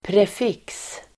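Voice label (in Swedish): "prefix", Swedish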